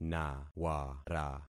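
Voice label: "nawara", concatenated syllables; Affixing experiment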